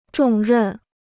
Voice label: "重任", Chinese